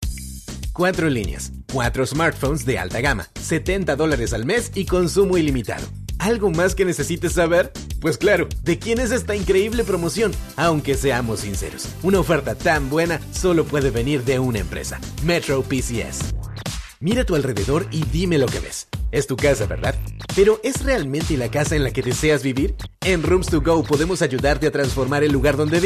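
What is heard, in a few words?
Latin American Spanish Commercial; GoLocalise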